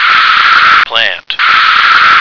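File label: "plant", American English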